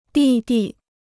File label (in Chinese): "弟弟", Chinese